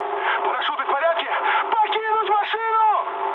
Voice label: bailout, mood high, speech ru; War Thunder